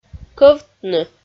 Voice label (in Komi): "кӧвтны" Komi